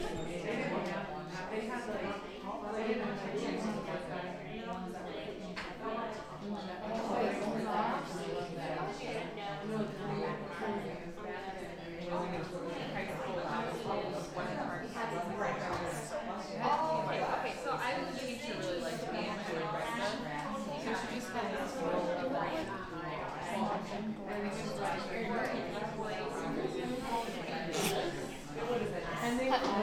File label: Women Talking Warehouse